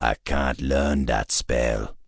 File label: Troll Male err cant learn spell